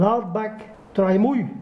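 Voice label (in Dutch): "Laadbak", dialect; Laadbak – Tramoeij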